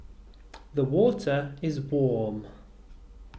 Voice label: "warm", English